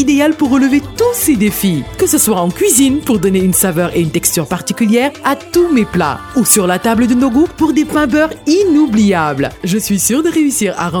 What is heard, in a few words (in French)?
spot radio ramadan vo française